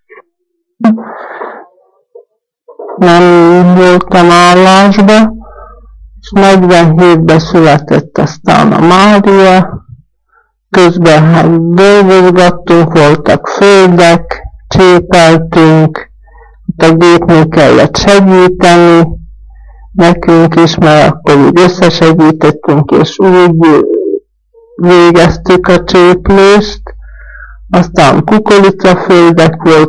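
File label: dialect collection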